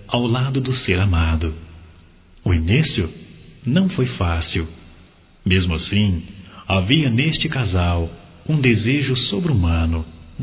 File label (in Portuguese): Cumprimento Aniversário Casamento Masculino; Aniversarios; Telemensagens 2017